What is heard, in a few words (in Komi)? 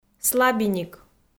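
"слабиник", Komi, female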